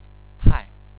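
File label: "tai", Chinese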